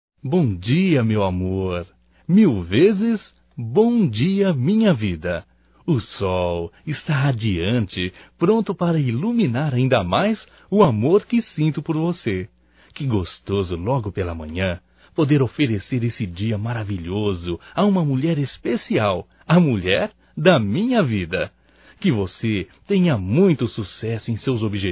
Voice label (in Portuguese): BOM DIA ROMÂNTICO (M); Bom dia, tarde e noite; Telemensagens 2017